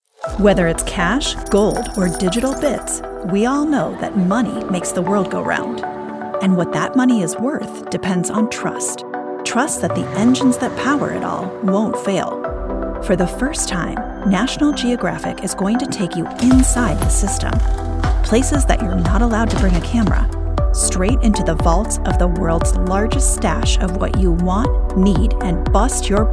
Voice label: Narration